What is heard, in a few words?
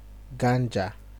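"ganja", Nigerian Pidgin, male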